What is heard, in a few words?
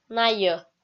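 "найӧ", Komi-Zyrian, female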